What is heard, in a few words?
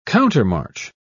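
"countermarch", British English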